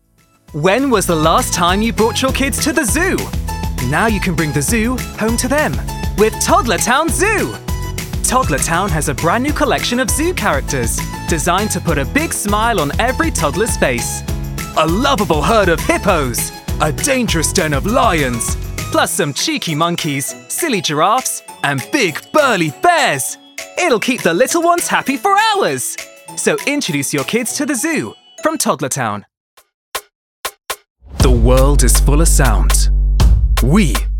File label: Commercial